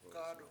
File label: willow summer house, Washo